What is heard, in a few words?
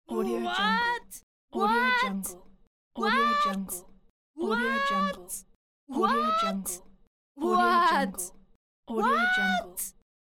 female silly what pack